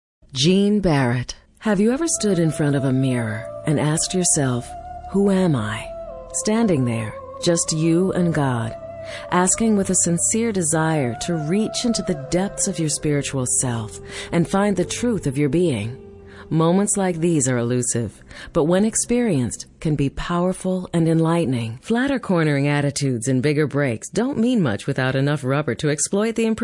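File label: narration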